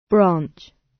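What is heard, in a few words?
"branch", British English; Time, history; Thời gian, lịch sử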